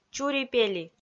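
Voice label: "чури-пели", Komi-Zyrian, female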